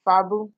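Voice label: "fabu", Nigerian Pidgin, female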